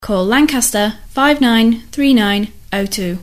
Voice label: female, no bed; call lancaster 593909; bailrigg fm; bfm jingles ads RSL 1994